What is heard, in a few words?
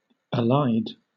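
"allied", British English; pronunciations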